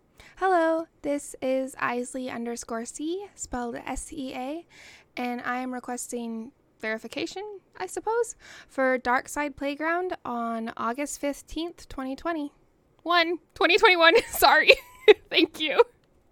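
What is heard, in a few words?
Verification, female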